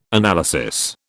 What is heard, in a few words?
"analysis", British